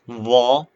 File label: short voyels arabic alphabet